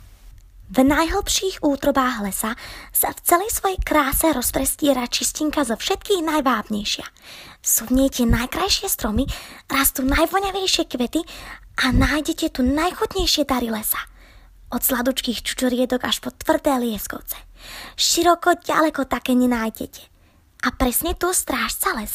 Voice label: female, Slovakian animation narration

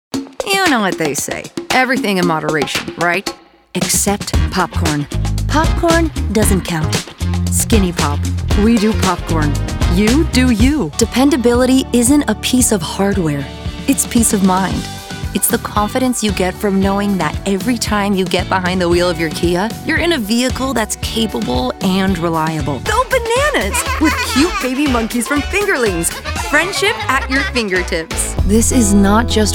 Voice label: female English USA commercial compilation

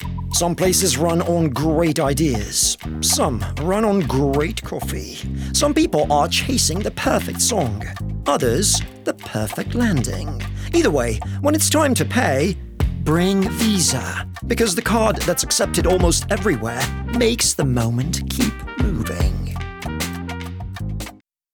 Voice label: male english with undetectable accent commercial; Devine Voices

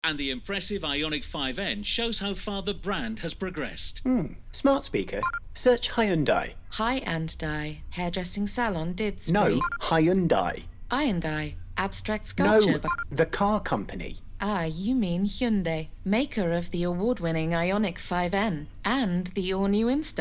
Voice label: male english radio commercial; Hyundai; English northern accents

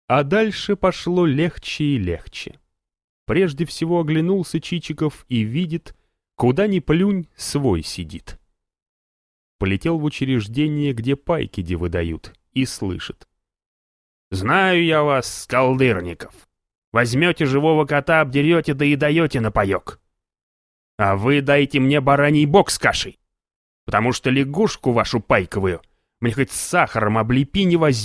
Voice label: male, Russian, audiobook

